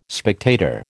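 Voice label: "spectator", American English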